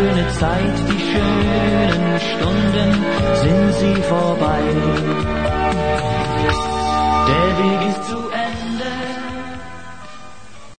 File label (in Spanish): Promo programa, Radio; Tardes de radio; Radio Las Palmas